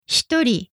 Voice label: "hitori", Japanese

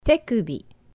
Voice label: "tekubi", japanese

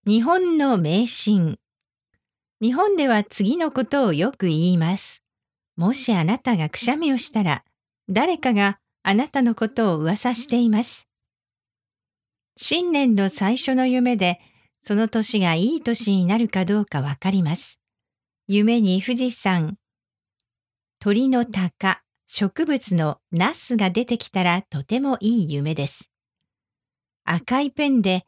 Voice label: Japanese Superstitions Normal Speed

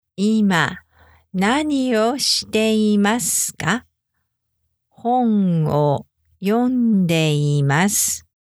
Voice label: beginner slow speed, japanese

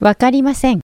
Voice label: "wakarimasen", Japanese

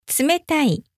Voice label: "tsumetai", Japanese

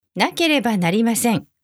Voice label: "nakerebanarimasen", Japanese

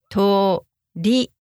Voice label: "tori" slow, Japanese

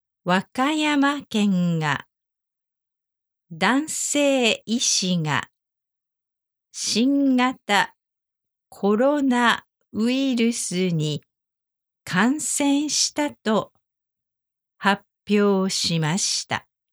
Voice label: news slow

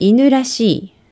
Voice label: "inurashii", Japanese

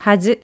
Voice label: "hazu", Japanese

